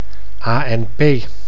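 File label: "ANP", Dutch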